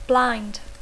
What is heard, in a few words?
"blind", English